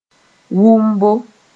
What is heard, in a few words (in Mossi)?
"wʋmbo", moore; hearing